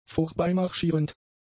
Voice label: "vorbeimarschierend", German; adjectives

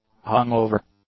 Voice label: "hung-over", English; adjectives

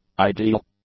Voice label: "ideal", English; adjectives

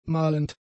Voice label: "malend", German; adjectives

